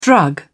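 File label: "drug", British English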